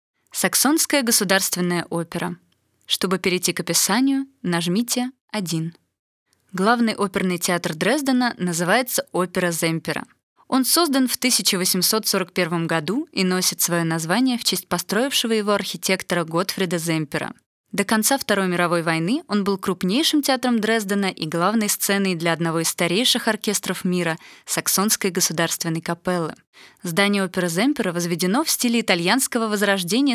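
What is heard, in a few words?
audioguide Russian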